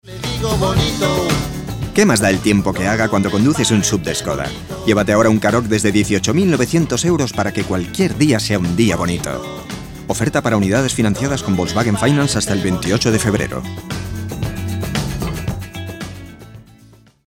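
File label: COMMERCIAL, SPANISH MALE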